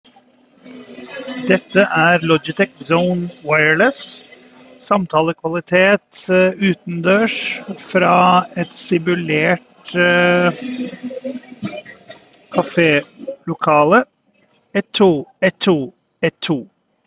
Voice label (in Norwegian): samtalelyd café; Logitech Zone Wireless